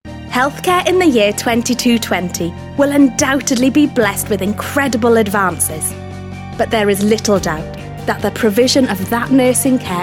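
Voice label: British English, Narration; GoLocalise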